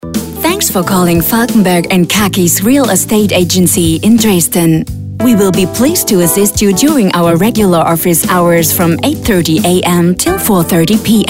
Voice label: AB Ansage US; werbesprecherin german voice-over talent for commercial female